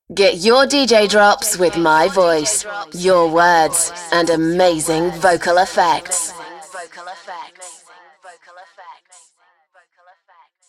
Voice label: Delay, DJ Imaging drops; Voice-over demos; MediaMusicNow